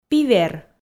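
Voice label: "пивер", Komi, female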